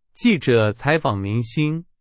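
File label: Chinese; hsk4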